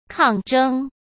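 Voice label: "抗争", Mandarin Chinese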